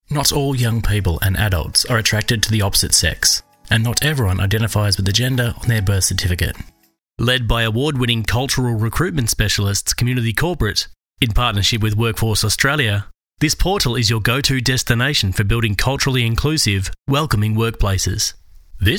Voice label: NARRATE GOV HEALTH, Learning Training Govt Medical, Natural Male Voice; Corporate